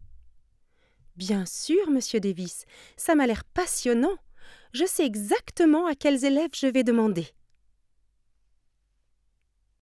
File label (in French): robots fr; marmite